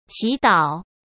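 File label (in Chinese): "祈祷", Mandarin; chinese-flashcards; hsk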